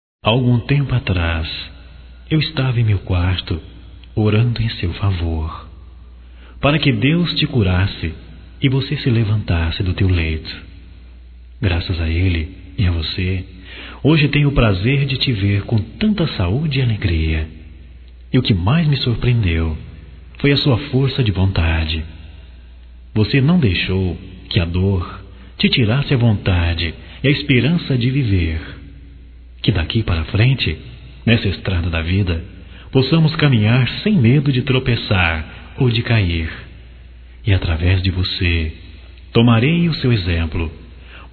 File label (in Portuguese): Restabelecimento Masculino